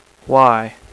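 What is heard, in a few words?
"why", male; voice; Web Clip Empire 150,000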